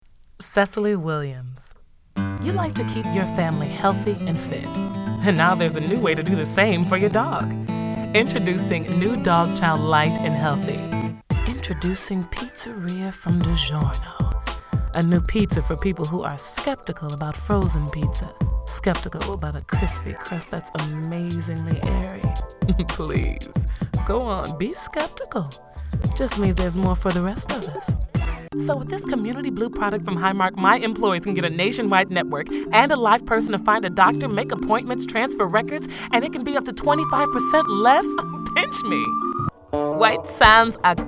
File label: commercial women